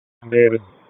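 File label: Raleigh Police Southeast District Dispatch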